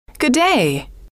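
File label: good Day, female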